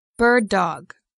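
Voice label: "bird-dog", English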